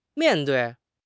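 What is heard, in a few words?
"mian4 dui4", Mandarin Chinese; HSK4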